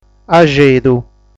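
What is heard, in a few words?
"Axedu", Sardinian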